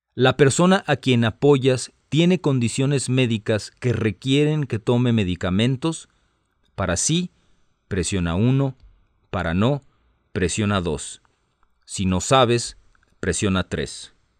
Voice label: Spanish, hotline